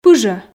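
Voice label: "пыжӧ" Komi, female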